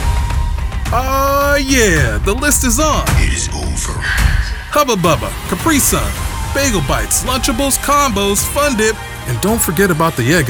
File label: Television Spots, Friendly, conversational, approachable Male Voice; Instacart Spot; Stranger Things